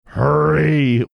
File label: drawn out hurry